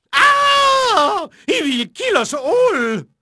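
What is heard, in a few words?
French male, voice; Pirates Of The Caribbean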